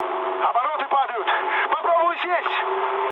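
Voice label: Russian damaged engine mood med, speech; War Thunder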